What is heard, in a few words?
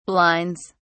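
"blinds", British English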